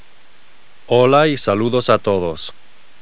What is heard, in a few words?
spanish; Golden Record